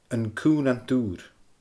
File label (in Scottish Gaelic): "An Cuumhnant UUr", Scottish Gaelic